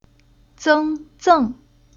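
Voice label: "Zeng", chinese syllable; Уроки фонетического блока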